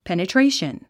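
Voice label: "penetration", English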